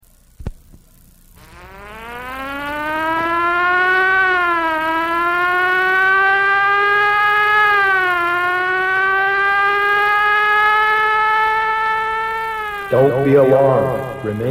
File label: promo stereo